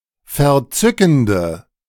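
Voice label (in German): "verzückende", German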